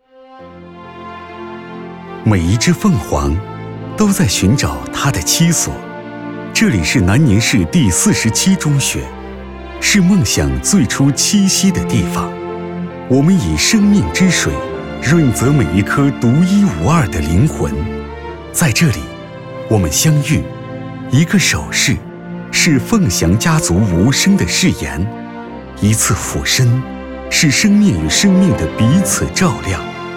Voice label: 男, 学校宣传片

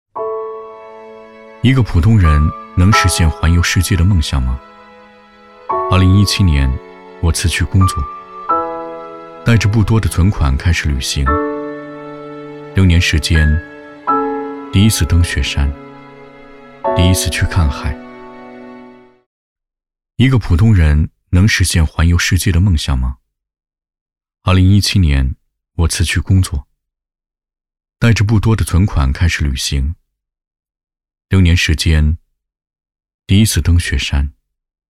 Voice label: 男 素人感觉 一个普通人

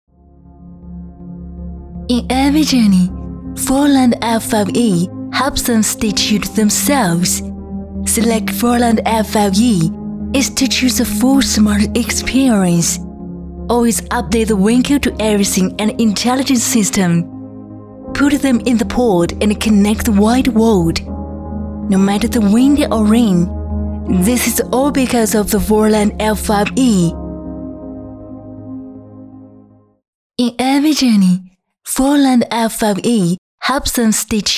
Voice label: 女 英文宣传片; FORLAND

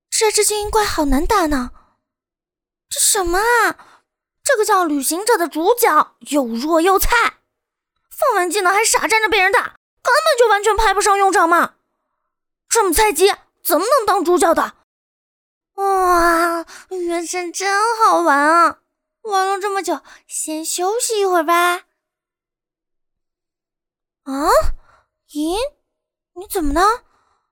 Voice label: 女 角色 少女; 芙宁娜

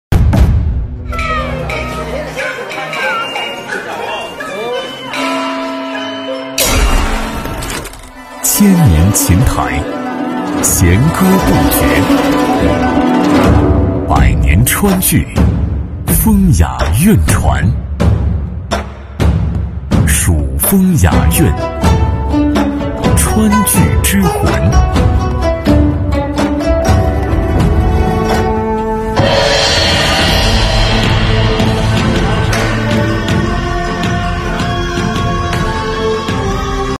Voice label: 广告; 梨园

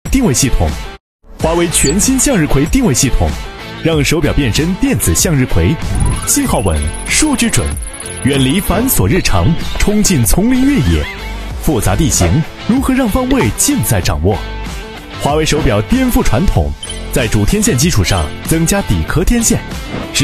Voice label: 男 广告; 华为watchgt6

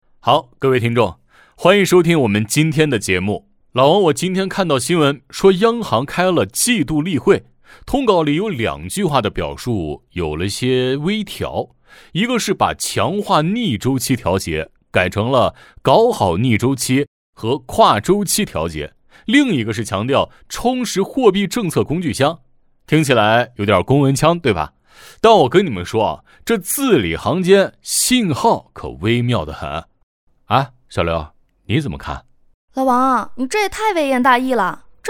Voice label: 女 双人电台类试音

